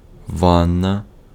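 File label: Ukrainian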